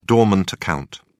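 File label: "dormant account", British English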